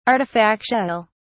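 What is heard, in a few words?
"artifactual", English, British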